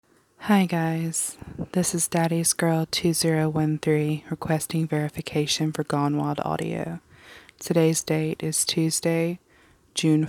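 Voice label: Female Verification; Verification for GWA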